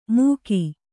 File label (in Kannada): "ಮೂಕಿ", kannada